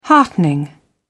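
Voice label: "heartening", British English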